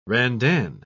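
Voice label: "randan", British English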